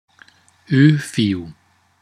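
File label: o fiu, Hungarian pronunciation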